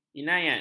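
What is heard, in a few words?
"inayat", Malay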